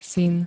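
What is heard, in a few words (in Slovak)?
"syn", Slovak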